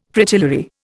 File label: "Fritillary", Indian